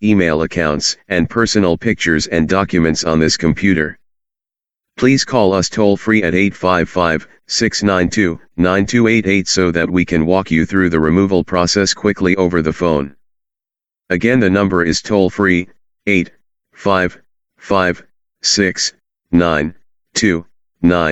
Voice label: man voice message important notification data loss viruses malware